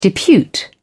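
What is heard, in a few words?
"depute", English, British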